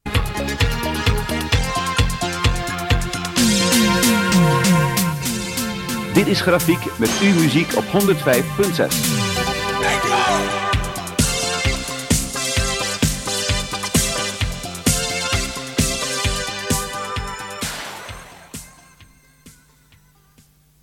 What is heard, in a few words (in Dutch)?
Aankondiging; De publieksopwarmer van het VTM-programma Tien Om Te Zien laat zich horen in een aankondiging voor